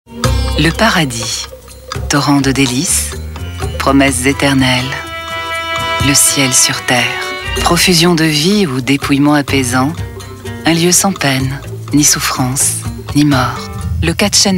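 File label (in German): französische Sprecherin; french voice-over talent female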